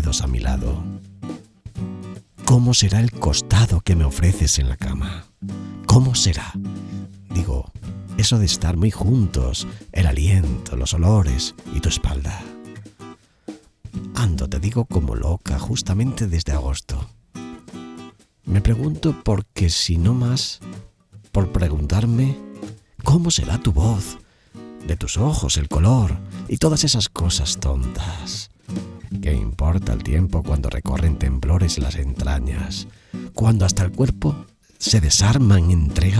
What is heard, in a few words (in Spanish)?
poema romantico; amor virtual